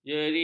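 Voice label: "jeri", Malay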